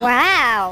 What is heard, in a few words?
"wow" kid; ooh ahh